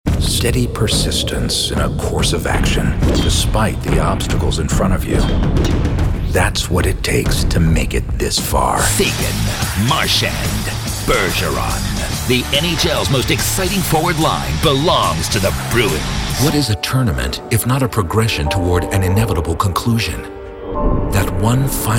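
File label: sports, voice actor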